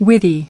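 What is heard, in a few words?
"Witty", American English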